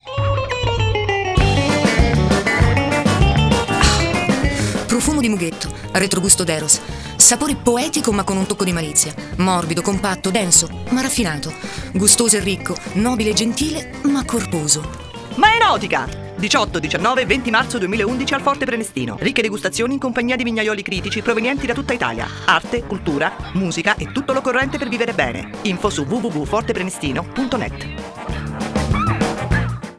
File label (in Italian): spot; enotica